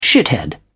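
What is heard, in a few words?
"shithead", British English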